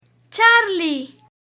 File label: "Charlie", alfabeto aeronáutico